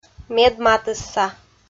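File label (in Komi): "медматысса", Komi, female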